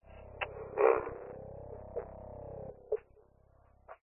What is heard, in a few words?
horror ghost evp spirit box eerie male speaking unrecognisable short glitchy; zapsplat